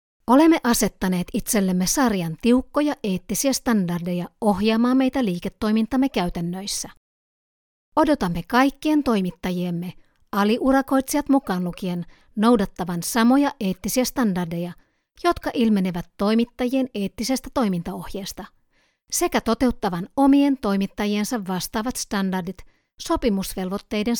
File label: e-Learning, Corporate E-Learning, Female Voice